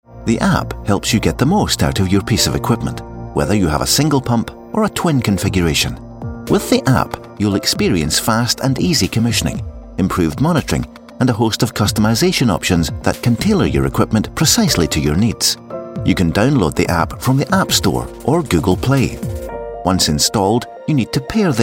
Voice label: e-learning